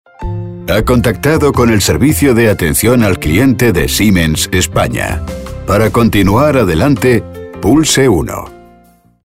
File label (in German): telefonansagen; siemens; 1a-telefonansagen